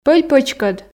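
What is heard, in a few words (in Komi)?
"пӧль-пӧчкӧд", Komi, female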